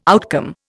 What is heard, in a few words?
"outcome", Indian